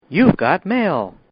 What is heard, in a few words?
Computer Says U Got Mail; Electronics; Partners In Rhyme